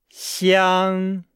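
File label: "xiang", Chinese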